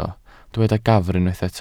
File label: "gafr", Welsh